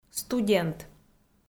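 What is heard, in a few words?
"студент", Komi, female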